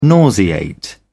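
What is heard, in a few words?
"nauseate", British English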